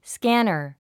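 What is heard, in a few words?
"scanner", English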